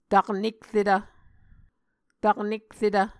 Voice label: "taknerketv", Muscogee